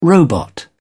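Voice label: "robot", British English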